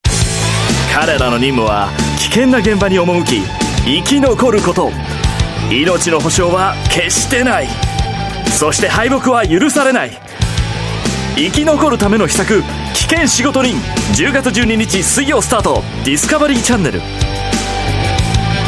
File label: Action Promo Japanese, male